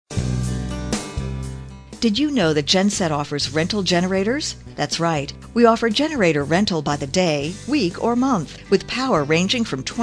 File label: on hold message; supply distribute; Genset Services